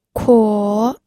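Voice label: "kuo", third tone, pinyin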